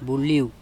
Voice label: "buliu", andamanese; river

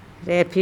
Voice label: "rEfe", andamanese; rice

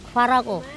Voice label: "pHarako", Andamanese

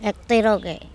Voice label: "ektEroke", andamanese; push

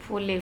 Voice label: "pHole", Andamanese; lake

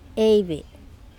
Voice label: "eibe", andamanese; vomit

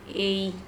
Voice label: "ei", andamanese; vomit

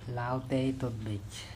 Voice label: "laotet tutbec", Andamanese; bird

